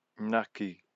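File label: "ngaki", Māori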